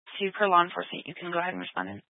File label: Fire Department Dispatch